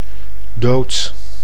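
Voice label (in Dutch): "dood", Dutch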